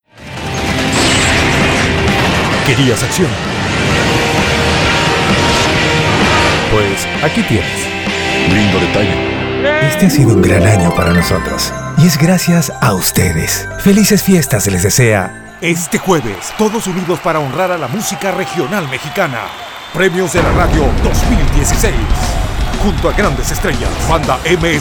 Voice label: Spanish Television VO

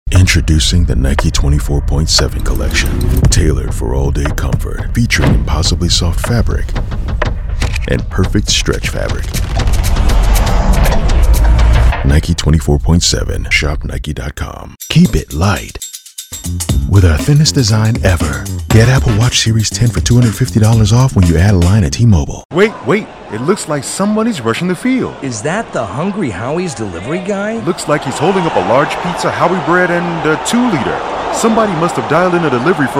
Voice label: Commercial

